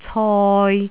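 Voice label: "coi3", Cantonese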